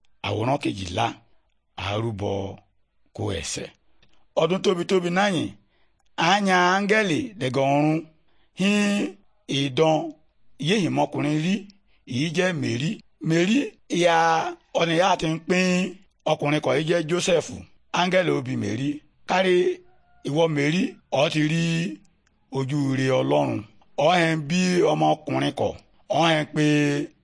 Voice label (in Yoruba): good news yoruba, bible